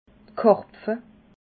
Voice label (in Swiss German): "karpfe", alsace